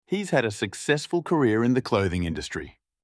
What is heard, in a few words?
speaking british pronunciation